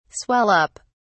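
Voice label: "swell up", British English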